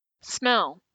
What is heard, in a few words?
m initial clusters